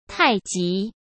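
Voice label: "太极", Mandarin Chinese; chinese-flashcards; hsk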